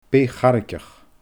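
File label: "beith charraigeach", Scottish Gaelic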